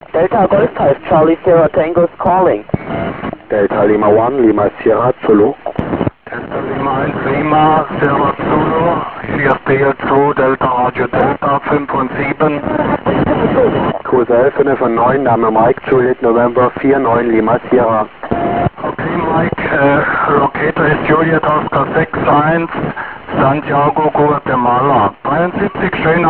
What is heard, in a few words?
ao-27 qso